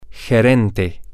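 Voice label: manager, Spanish, male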